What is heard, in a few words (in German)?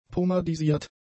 "pomadisiert", German; adjectives